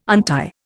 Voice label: "untie", Indian

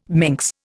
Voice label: "minx", Indian

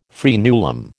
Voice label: "fraenulum", American

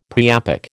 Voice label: "priapic", American

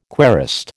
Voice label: "querist", American

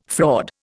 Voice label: "fraud", Indian

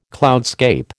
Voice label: "cloudscape", American

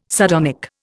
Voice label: "sardonic", Indian

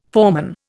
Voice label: "foreman", Indian

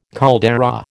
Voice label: "caldera", American

